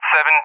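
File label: "7", English; Digits; DCS; Allied Flight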